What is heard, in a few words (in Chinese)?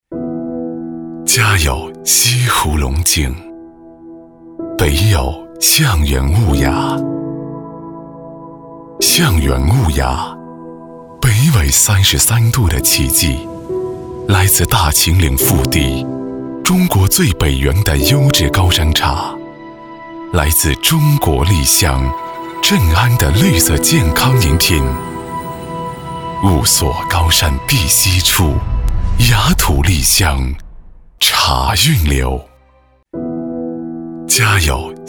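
国语 男声 宣传片 大气浑厚 大气浑厚磁性 沉稳 科技感; 象园雾牙茶-专题宣传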